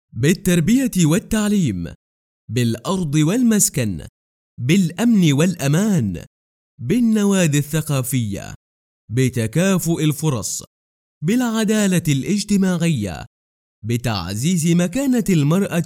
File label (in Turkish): Arapca Erkek Klasik Arapca; erkek sesler; seslendirme